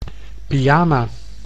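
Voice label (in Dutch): "pyama", Dutch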